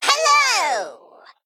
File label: Male Mech Threaten; AnnoyO